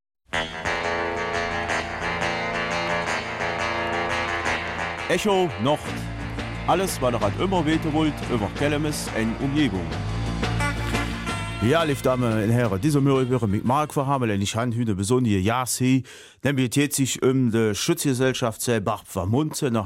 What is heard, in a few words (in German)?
Kelmiser Mundart; Pétanque-Turnier des Schützenvereins Ste Barbe Montzen